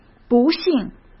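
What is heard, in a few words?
"不幸", Mandarin Chinese; chinese-flashcards; hsk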